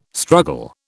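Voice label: "struggle", British English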